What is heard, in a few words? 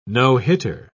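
"no-hitter", British English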